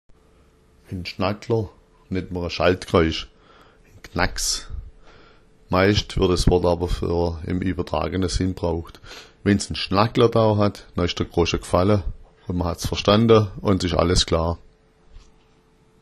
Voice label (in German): "schnackler", schwaebisch